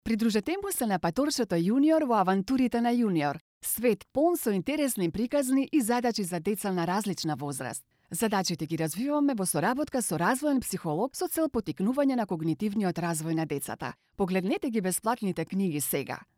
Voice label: F commercial